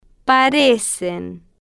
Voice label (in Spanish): "pa-re-cen", Spanish